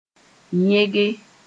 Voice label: "yẽge", Mooré